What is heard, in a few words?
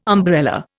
"umbrella", Indian